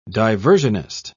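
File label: "diversionist", British English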